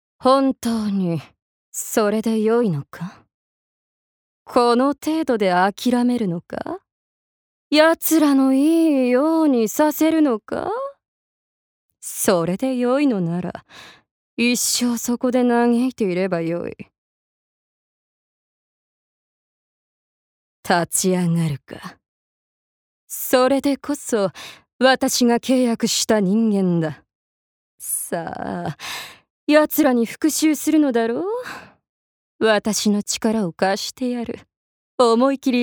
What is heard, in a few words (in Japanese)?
アニメ